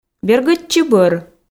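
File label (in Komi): "бергӧдчы бӧр", Komi, female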